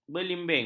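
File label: "belimbing", Malay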